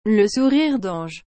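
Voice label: "le sourire dange", French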